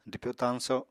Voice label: "dwpyo-tañso", Apatani

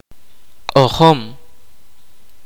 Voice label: kaqchikel; Music

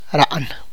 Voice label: "plow", tlacochahuaya

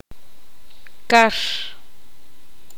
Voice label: poqomam; pescado

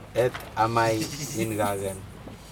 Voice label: he chews kava, Aneityum

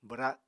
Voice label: "bwra", Apatani

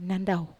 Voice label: "nandau", Wamesa

